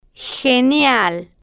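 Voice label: "genial", slow, spanish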